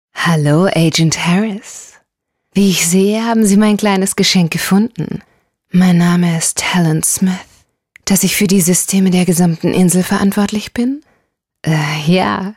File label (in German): Rollenspiel, frauen